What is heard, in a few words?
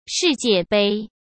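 "世界杯", Mandarin Chinese; chinese-flashcards; hsk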